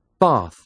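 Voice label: "bath", English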